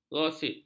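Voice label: "gosip", Malay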